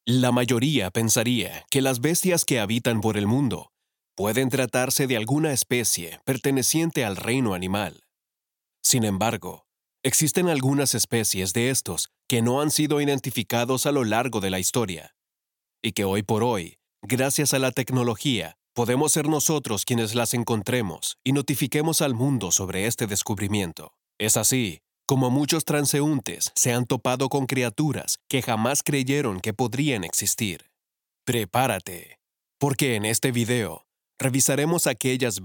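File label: Spanish Latin America (Honduras), Male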